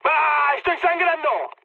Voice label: damaged pilot, mood med, speech; War Thunder